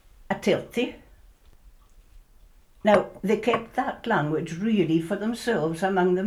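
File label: Northern and Insular Scots, The Black Isle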